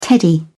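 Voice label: "teddy", British English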